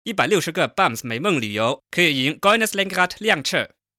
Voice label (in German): chinesisch; LOFT VOICES